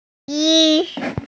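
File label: "mao2yi1", Mandarin Chinese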